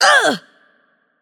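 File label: Attack Heavy, Spanish; es ES; VO; bloom minigame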